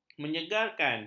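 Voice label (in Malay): "menyegarkan", Malay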